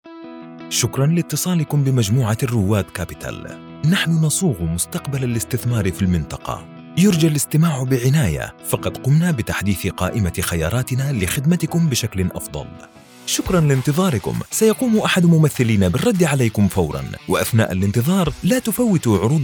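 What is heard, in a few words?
Arabic IVR; GoLocalise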